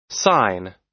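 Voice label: "sign", American English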